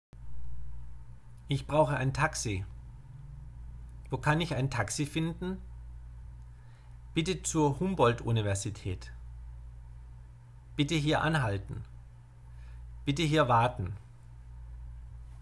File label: German Lesson Taxi